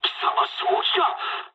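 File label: Japanese player killed ally mood low; War Thunder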